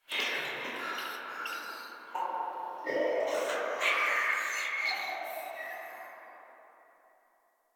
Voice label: Whispers; Inf Hub Lab Many Lights; Warframe; Ambience; Entrati